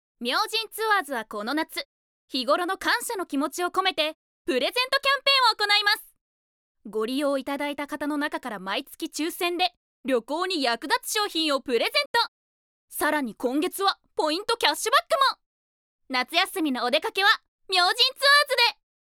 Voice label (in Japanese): ナレーション